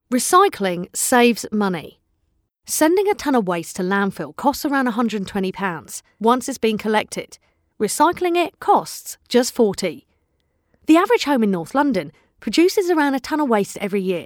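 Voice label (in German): UK English, Lebendig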